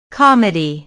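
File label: "comedy", English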